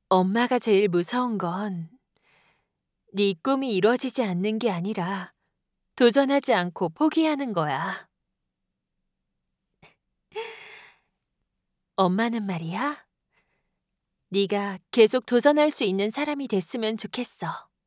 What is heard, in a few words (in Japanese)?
優しいお母さん, 声優; 韓国ソウル出身。日本の専門学校、声優養成所を卒業。